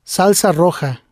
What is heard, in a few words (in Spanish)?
"Salsa Roja", spanish